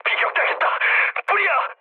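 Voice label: Korean on fire mood low; speech; War Thunder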